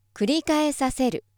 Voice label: female